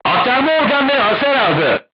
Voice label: voice message horizontal aiming drive damaged, crew tanks turkish